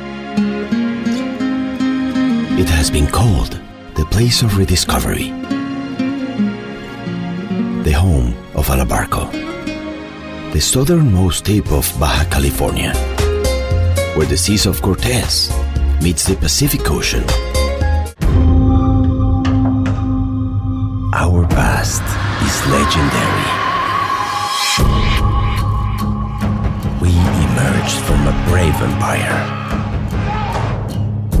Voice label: ENGLISH WITH SPANISH ACCENT

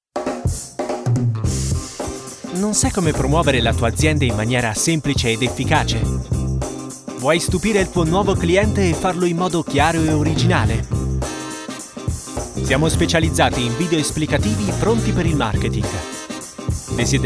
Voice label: Italian Corporate Commercial

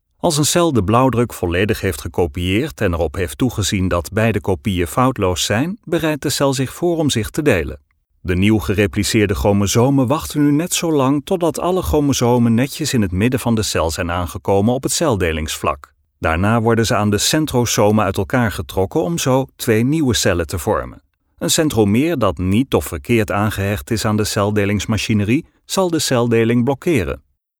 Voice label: medical explainer

